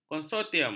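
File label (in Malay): "konsortium", Malay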